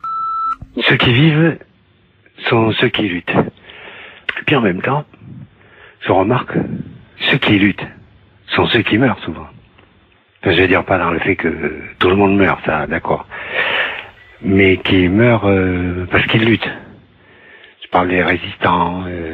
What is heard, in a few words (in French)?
repondeur; Ceux qui luttent